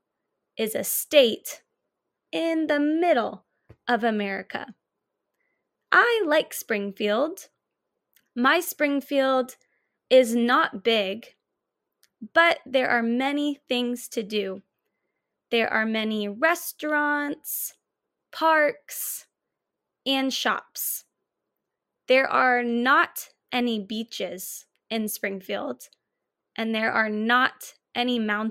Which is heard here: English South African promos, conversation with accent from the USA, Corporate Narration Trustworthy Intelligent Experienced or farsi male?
conversation with accent from the USA